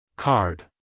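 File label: "card", American English male; everyone-can-use-english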